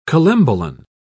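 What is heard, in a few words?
"collembolan", British English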